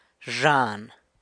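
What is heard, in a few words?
"rear end", Zapotec; zhan; San Lucas Quiaviní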